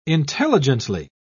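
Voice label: "intelligently", British English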